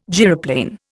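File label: "gyroplane", Indian English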